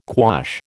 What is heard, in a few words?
"quash", American